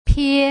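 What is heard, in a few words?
"pie", Mandarin Chinese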